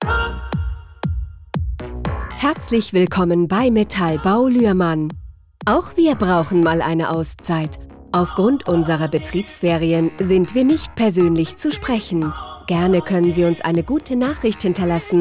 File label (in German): Betriebsferien, Ansagen; Metallbau Luehrmann; Ansagen 2020